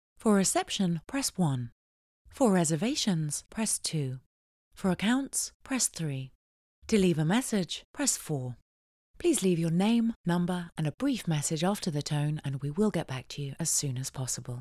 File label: TELEPHONY